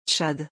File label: "Tchad", French